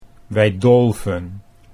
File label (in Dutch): "wij dolven", Dutch